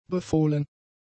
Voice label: "befohlen", German; adjectives

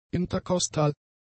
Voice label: "interkostal", German; adjectives

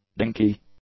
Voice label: "dinky", english

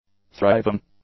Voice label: "thriving", English; adjectives

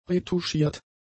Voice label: "retuschiert", German; adjectives

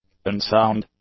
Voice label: "unsound", english; adjectives

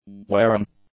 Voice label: "wearing", English; adjectives

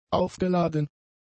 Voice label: "aufgeladen", German; adjectives

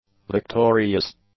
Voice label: "victorious", English; adjectives

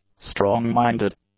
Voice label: "strong-minded", English; adjectives

